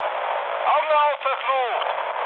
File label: player friendly fire, mood med, speech ge; War Thunder